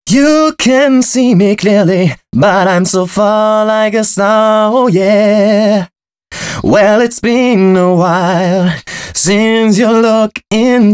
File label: male, Dance Ultra Voice hooklines